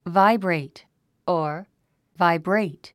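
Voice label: "vibrate", English word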